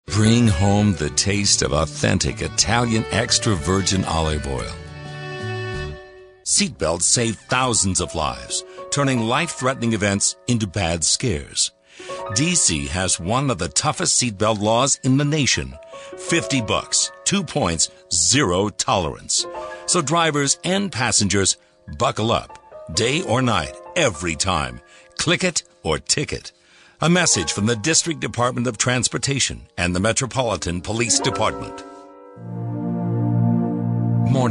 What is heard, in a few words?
warm, male voices for radio commercials